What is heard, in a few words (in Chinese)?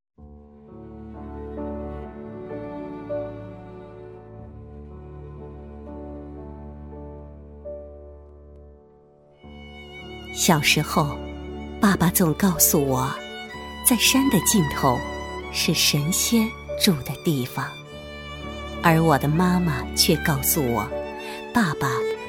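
女全能配音; 茶场样音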